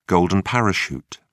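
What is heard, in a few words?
"golden parachute", British English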